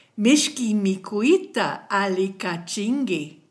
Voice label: bon appetit, kichwa

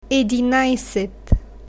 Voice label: "edinajset", Bulgarian numbers

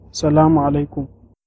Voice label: hello, hausa

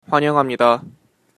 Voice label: welcome, korean

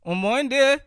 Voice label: hello, bassa

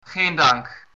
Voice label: you're welcome, dutch

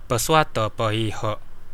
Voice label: write, kadazan

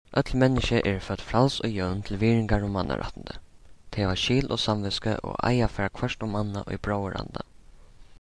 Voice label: Faroese

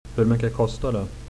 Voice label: how much, swedish

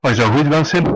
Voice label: please, West Flemish

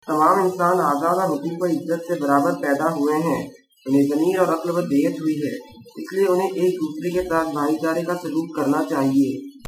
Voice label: udhr urdu

